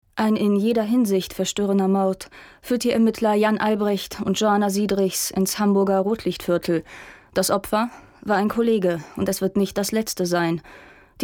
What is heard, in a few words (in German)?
kommentar